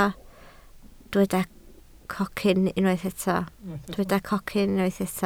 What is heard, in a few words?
"cocyn", Welsh